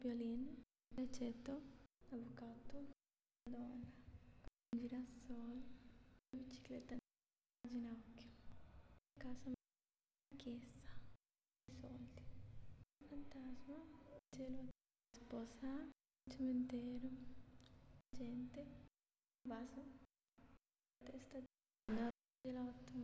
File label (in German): Zusammengefugte Worter Schnell Italienisch